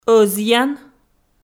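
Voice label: "ӧзъян", Komi, female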